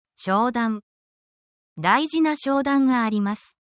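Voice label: Japanese word, essential Japanese phrases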